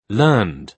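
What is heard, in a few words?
"learned", English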